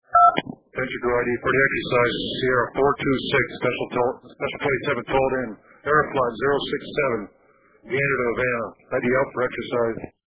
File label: Announcement; formal announcement to the NEADS floor by the ID section